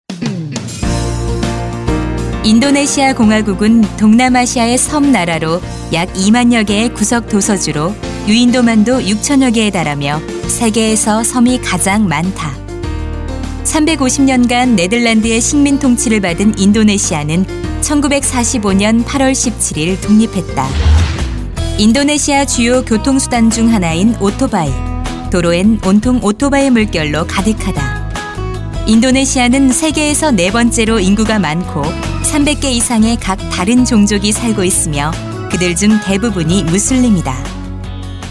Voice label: Korean Female

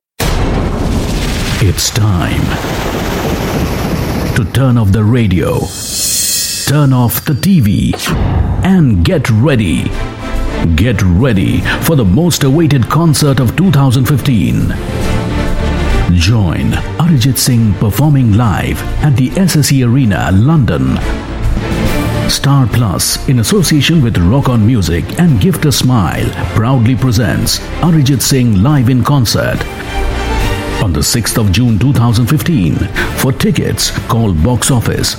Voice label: Indian English Promo, India Male

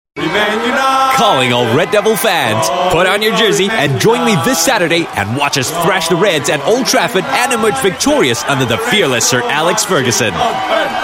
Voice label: Asian English, English Singaporean Male; ManUtd v Liverpool

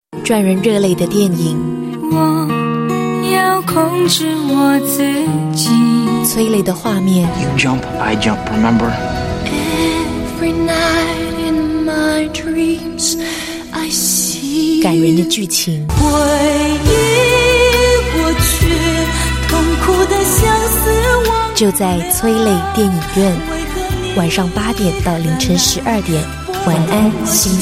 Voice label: Trailer Mandarin F; China; Sunday Night Trailer

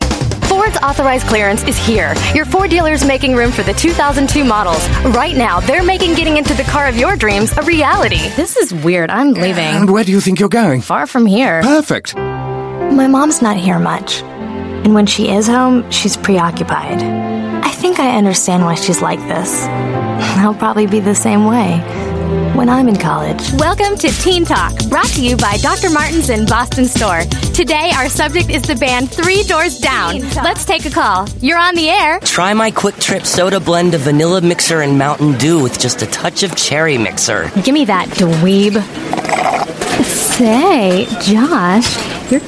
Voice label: US English, Female

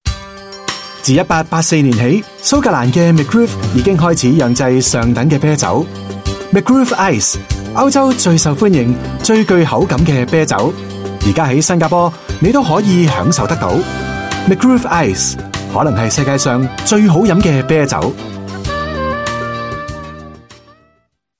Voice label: Cantonese Beer, Cantonese Male

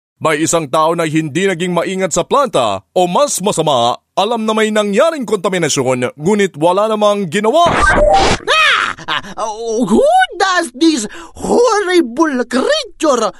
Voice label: Tagalog Commercials Male; Filipino

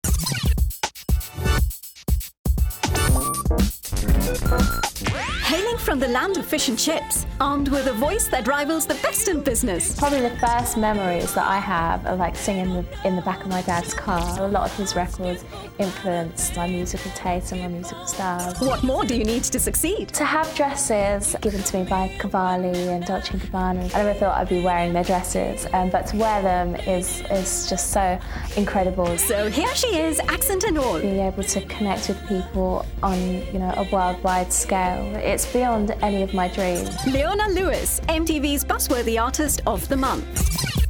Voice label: India Female; MTV Asia Buzzworthy

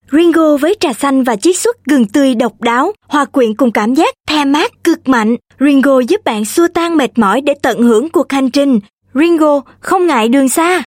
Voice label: MC South Female Vietnamese

